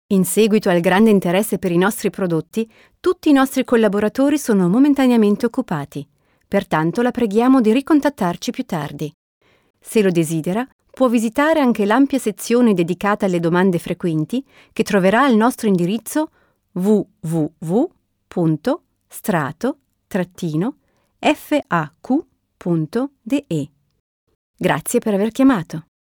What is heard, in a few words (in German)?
Italienisch Kunden Hotline